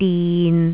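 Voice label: "din6", Cantonese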